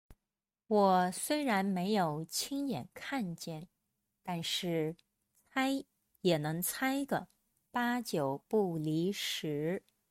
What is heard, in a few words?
denoised